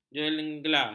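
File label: "jegela", Malay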